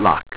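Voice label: "lock", english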